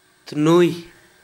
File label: bamboo shaft roof support, Gta, Dialect: Hill; stake, pillar, post; household